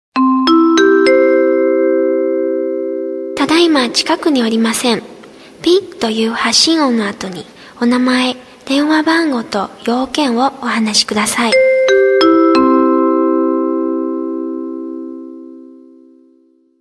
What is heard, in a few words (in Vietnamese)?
Nhạc chuông thông báo ở sân bay Nhật Bản